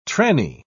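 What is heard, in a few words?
"trannie", British English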